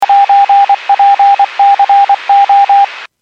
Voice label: Skywarn 146.67; Stevens Point

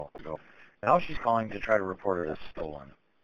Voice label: Police Sheriff Dispatch

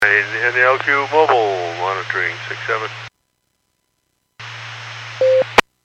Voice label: Skywarn 146.67; Stevens Point

